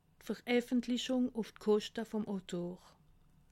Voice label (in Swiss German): "kochte", alsace